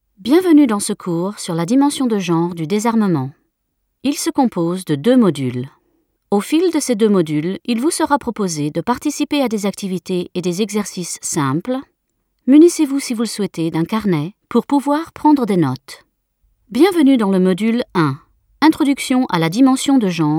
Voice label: french e-learning